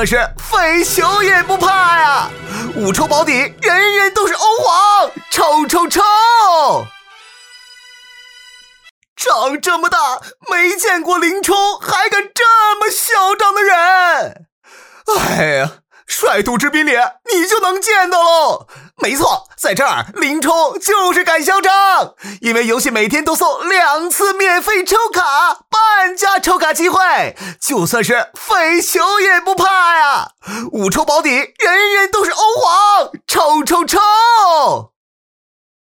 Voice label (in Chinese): 男 游戏; 抖音